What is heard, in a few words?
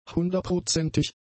"hunderprozentig", German; adjectives